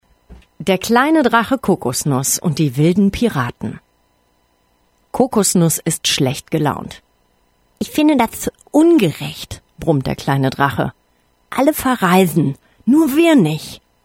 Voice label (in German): Hörbuch